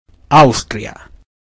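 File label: austria, Swedish; gcompris-data; geography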